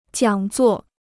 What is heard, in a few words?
"讲座", Chinese